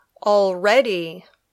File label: "already", English